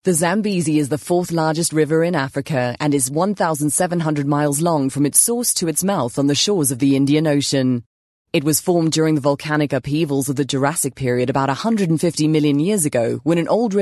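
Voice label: South African English, Narrative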